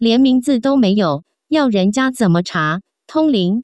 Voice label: "tongling", Taiwan Chinese; line group; huikuan; baoming